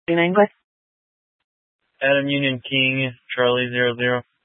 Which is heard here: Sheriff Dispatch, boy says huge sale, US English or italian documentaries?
Sheriff Dispatch